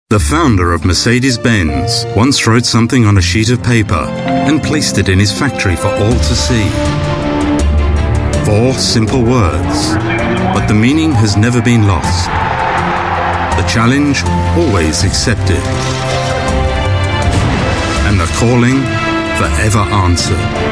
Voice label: UK English Upbeat TVC; Mercedes